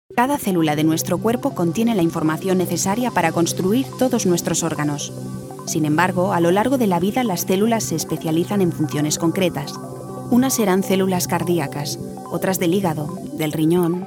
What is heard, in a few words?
es corporate